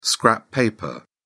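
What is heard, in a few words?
"scrap paper", British English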